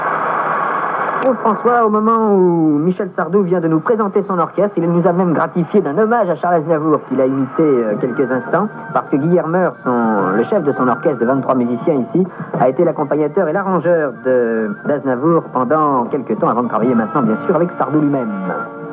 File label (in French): Commentaires; Europe1; A LA RADIO (ANNEES 1970)